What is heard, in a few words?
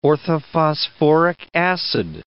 "orthophosphoric acid", English